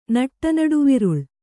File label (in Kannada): "ನಟ್ಟನಡುವಿರುಳ್", Kannada